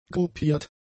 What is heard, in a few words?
"gruppiert", German; adjectives